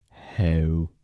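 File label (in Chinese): 头-台城-男, 台山话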